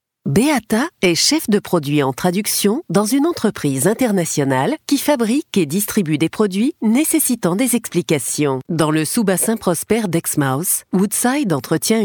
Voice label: corporate

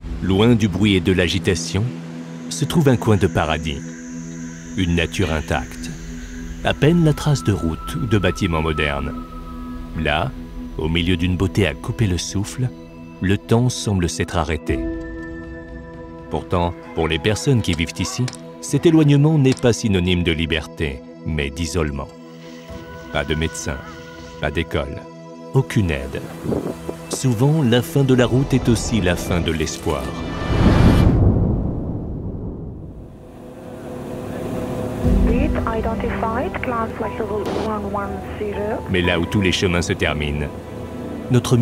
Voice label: corporate; MAF

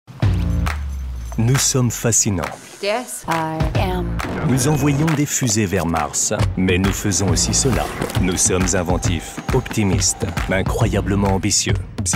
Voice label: commercial tv; lenovo yoga

